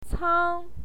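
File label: "cang", Mandarin Chinese, first tone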